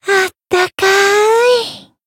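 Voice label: Voice healed, Japanese; pizzica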